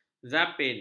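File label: "zapin", Malay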